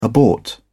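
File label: "abort", British English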